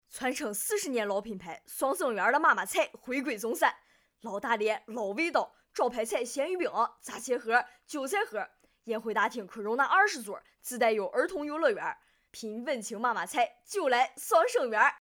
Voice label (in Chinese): 纯大连话餐饮